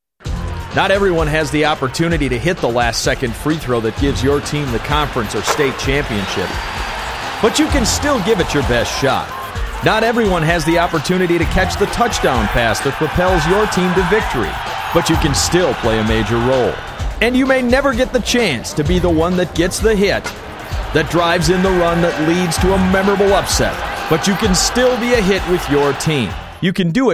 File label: audio spot